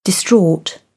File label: "distraught", British; ɑː - ɔː; English